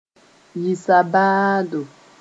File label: "yɩ sabaado", Mooré